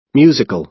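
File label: "musical", American English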